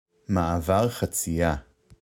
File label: crosswalk, how to say crosswalk in Hebrew